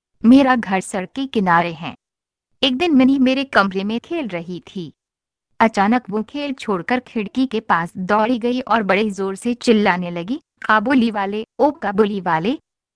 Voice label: jaws hindi sample